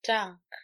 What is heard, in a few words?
"tank", Sound t